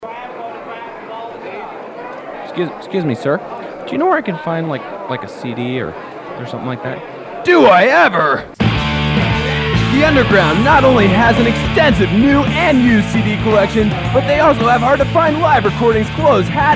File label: Commercial; The Underground